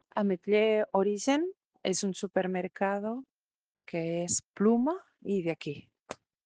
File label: Voice message